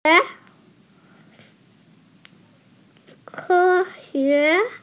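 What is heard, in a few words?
"ke1xue2", Mandarin Chinese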